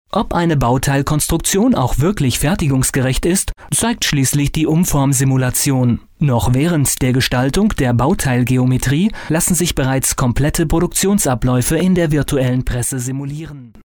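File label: German, male, narration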